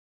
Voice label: WC EMS ALERT, p25; wake